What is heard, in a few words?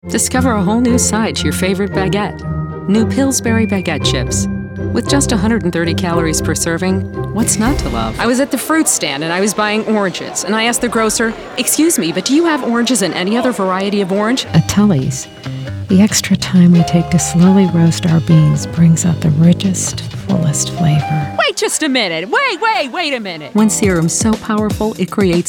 Commercial